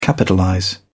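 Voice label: "capitalize", English, British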